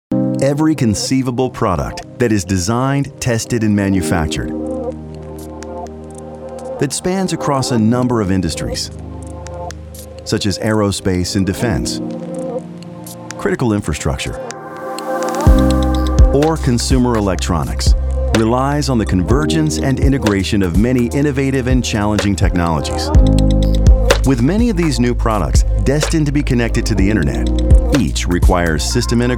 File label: MIX Man 30-40 jaar Low, VOICEOVER; BUITENLANDSE